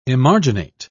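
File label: "emarginate", British English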